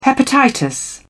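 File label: "hepatitis", British English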